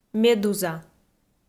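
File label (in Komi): "медуза", Komi, female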